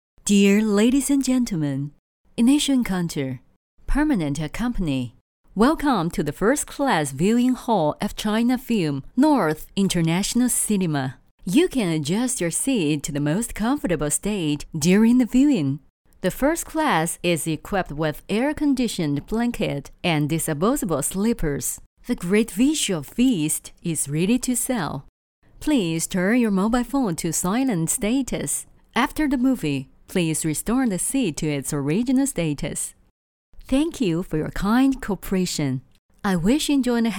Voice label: 女 英语专题 产品介绍

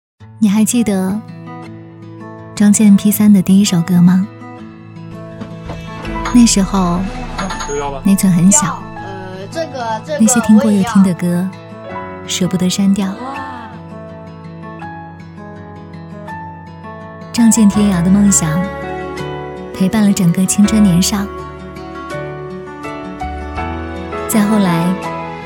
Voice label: 女 品牌短片; 魅族M3

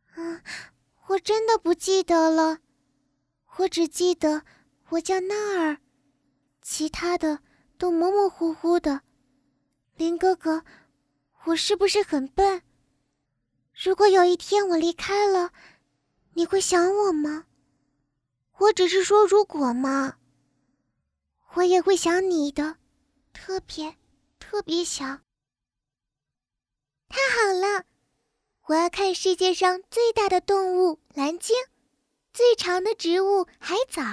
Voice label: 女, 模仿 萝莉

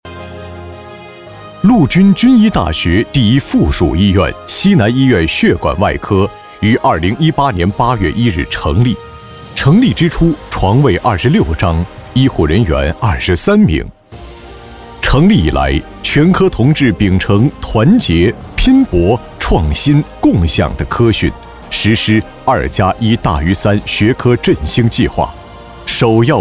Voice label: 男 医院宣传片 血管外科; 陆军军医大学第一附属医院; 西南医院

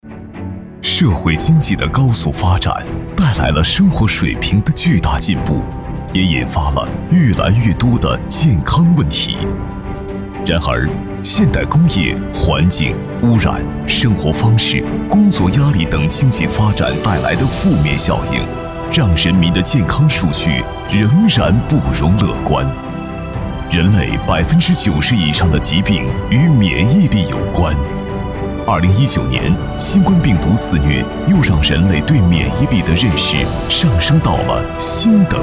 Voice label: 男 专题警示

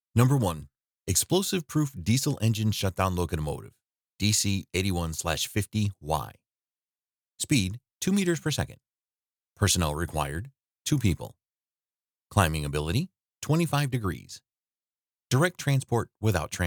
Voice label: 磁性干音

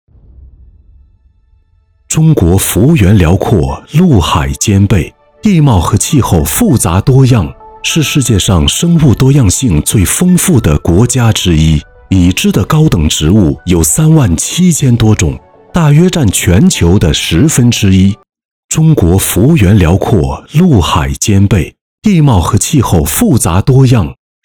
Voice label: 男 宣传片 浑厚大气 生态植物园

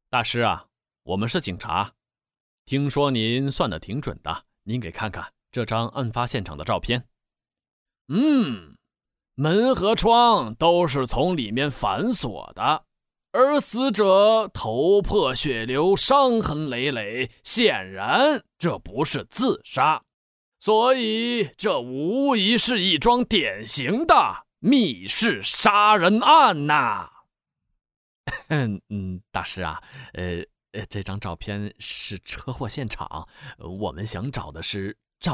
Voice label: 男 角色

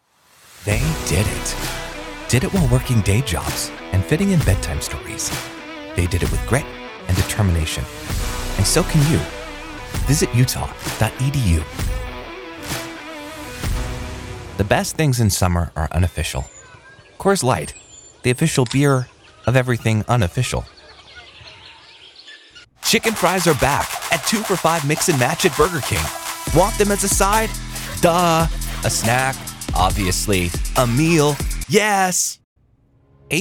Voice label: 外籍英男, 轻快活泼

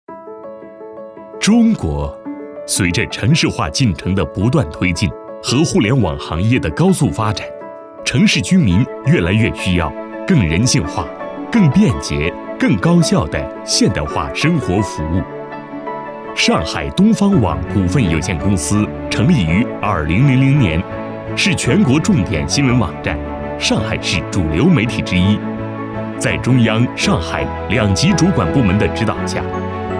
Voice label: 男, 宣传片; 智橙宣传片

